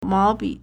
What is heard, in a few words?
"mao2bi3", Chinese